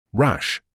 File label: "rash", English